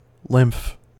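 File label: "lymph", US English; pronunciations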